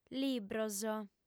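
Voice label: "libros", female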